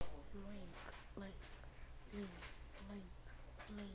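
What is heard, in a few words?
"ring", spoken word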